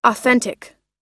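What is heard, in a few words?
"authentic", US English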